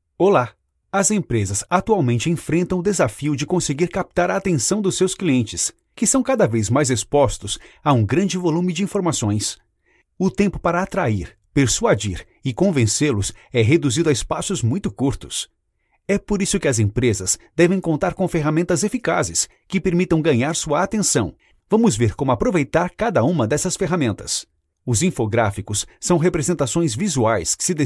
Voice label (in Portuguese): Portuguese Brazil